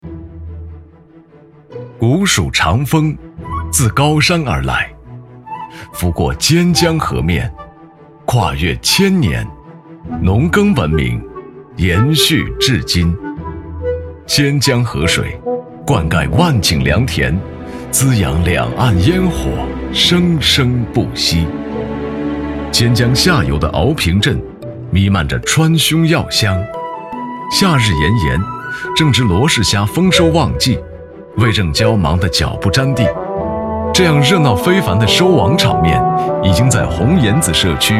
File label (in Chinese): 男 专题; 新农人的新农梦